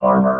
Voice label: "armour", vox robot

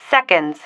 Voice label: "seconds", female

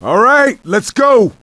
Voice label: "Lets go", radio

